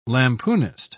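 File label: "lampoonist", British English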